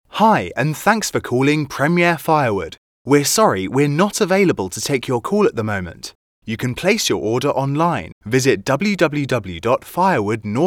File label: English British IVR